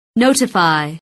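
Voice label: "notify", English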